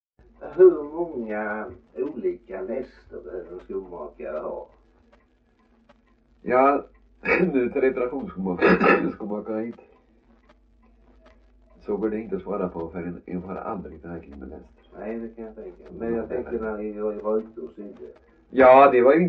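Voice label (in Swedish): Värö Halland, dialekter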